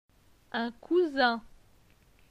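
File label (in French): "Un cousin", French; phonetique; les consonnes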